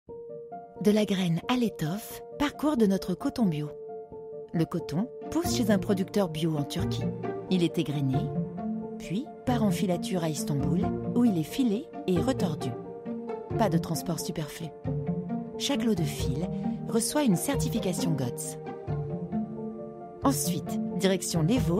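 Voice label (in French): Film Web Douce narrative confidentielle, voix off féminine; Colimacon Coton Bio; Complice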